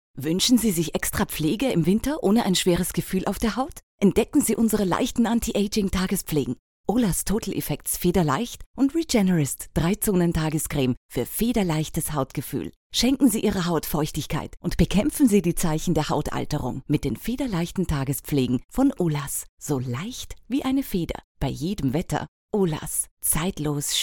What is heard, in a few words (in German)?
Werbung; Olaz